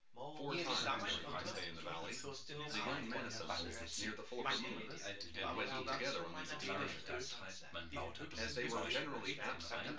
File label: male4 synthconv 250ms 5cm src